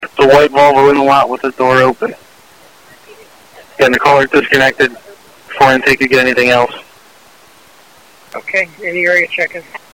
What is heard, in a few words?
ASTRO Voice; decoded audio from the Bucks County, PA 500 MHz trunk system; IMBE (Improved Multi-Band Excitation) vocoder from Digital Voice Systems Inc.